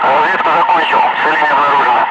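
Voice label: Russian speech, Ka-50 Wingman; DCS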